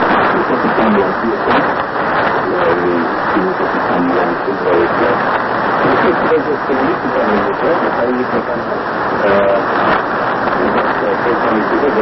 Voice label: R. Western 3.305MHz

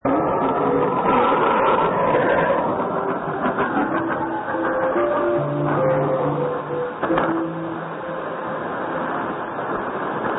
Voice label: Radio Republik Indonesia Palangkaraya 3325kHz

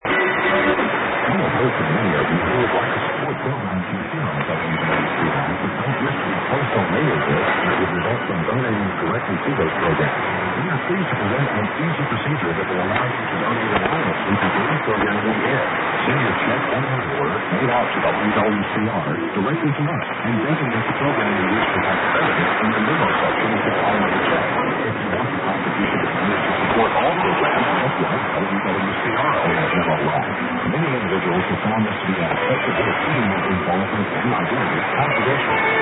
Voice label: 5070kHz; WWCR

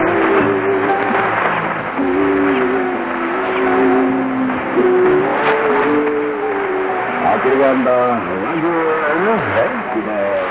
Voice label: 5030kHz; Radio Television Malaysia Sarawak

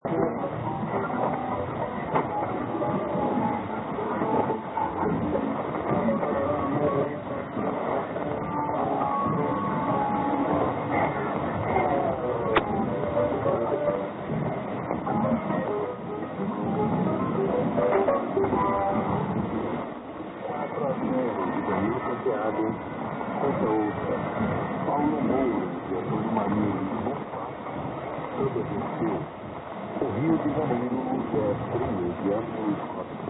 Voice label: Radio Senado 5990kHz

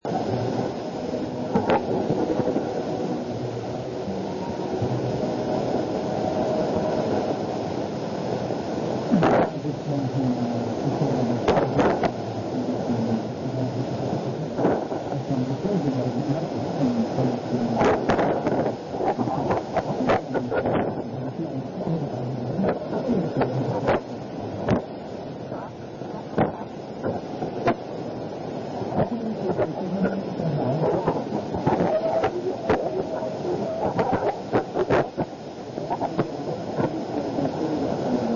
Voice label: 3315kHz; Radio Manus

